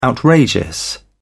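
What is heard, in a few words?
"outrageous", British English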